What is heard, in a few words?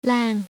"Lan", vietnamese